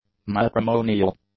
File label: "matrimonial", English; adjectives